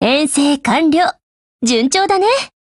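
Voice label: OPERATION OVER Japanese, Voice; GF Alarm; EVO3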